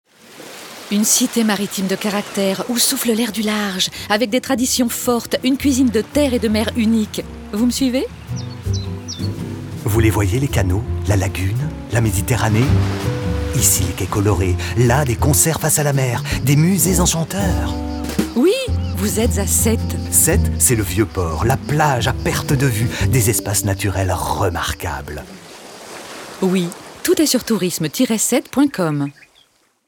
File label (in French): spot radio; sete; fb herault